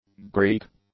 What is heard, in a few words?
"Greek", english; adjectives